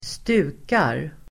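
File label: "stukar", Swedish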